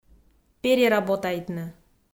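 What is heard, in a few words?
"переработайтны", Komi, female; audio dict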